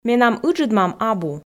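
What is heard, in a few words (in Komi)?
менам ыджыд мам абу, Komi, female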